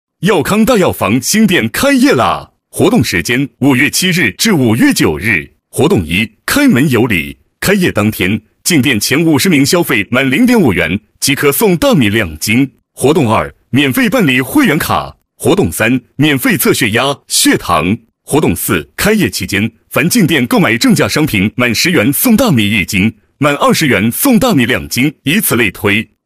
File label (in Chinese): 激情促销男; 激情力度; 耀康大药房新店开业